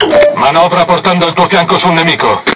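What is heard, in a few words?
It Speech Tutorial Task, Italian; World Of Warships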